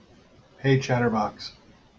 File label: hey chatterbox, en-us